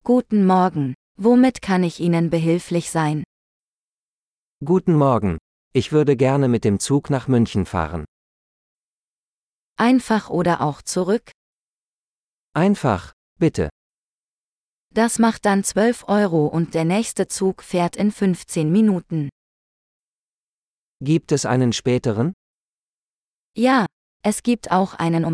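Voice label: At the Train Station - Dialogue, German; de-en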